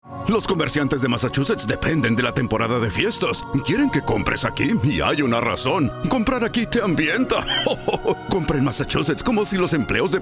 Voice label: Holiday Radio Spanish; Retail Assoc Of Mass